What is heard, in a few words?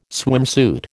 "swimsuit", American